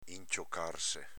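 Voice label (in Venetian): "inciocarse", Veneto dialect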